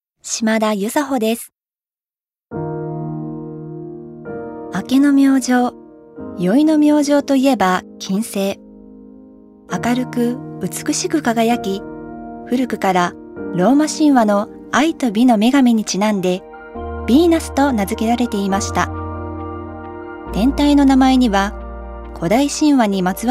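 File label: narration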